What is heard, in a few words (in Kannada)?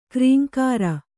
"ಕ್ರೇಂಕಾರ", Kannada